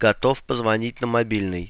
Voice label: ready to call on mobile, russ; sgh-d720; AnyVoice